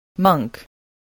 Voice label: "monk", voice, spelling